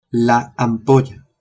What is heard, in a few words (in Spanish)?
"ampolla" spanish